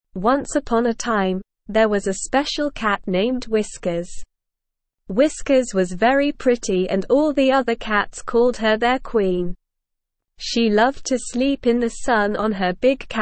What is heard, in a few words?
ESL Short Stories for Kids Beginner SLOW Reading; The Queen of Cats